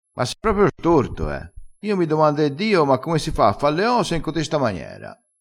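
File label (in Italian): "storto", vocabolario dialettale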